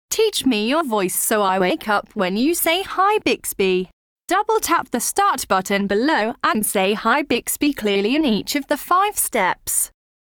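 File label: wake up ready, British English; Bixby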